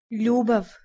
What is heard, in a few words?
"ljubav", Bosnian